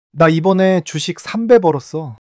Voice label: excitement

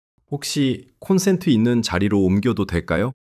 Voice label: shy

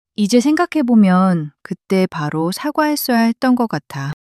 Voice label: apology, female

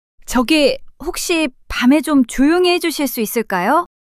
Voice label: fluster

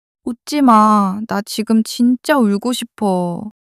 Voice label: sadness

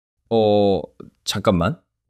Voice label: fluster

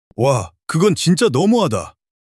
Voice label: anger

